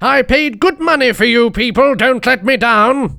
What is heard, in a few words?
battlecry